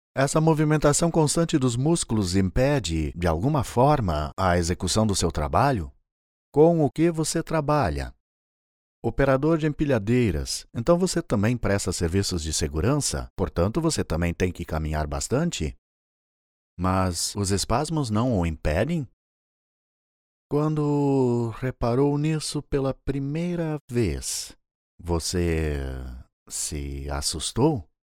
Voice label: portuguese brazilian political ads; Voice over talent, with a warm, clear and friendly voice